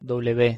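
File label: "w", Spanish pronunciation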